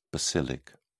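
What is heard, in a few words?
"basilic", British English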